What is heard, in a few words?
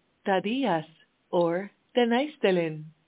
Amharic, hello